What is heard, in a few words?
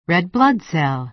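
"red blood cell", American English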